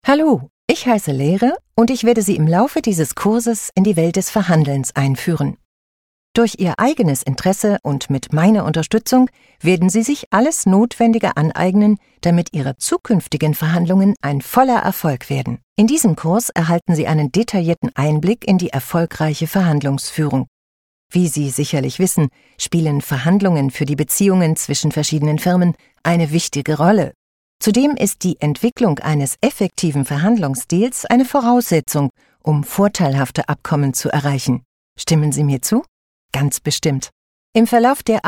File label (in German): elearning, deutsch frauen